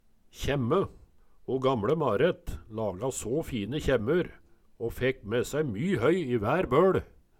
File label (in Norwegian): kjemme, Numedalsmål